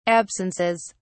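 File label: "absences", English, British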